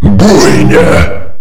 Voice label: holy shit, male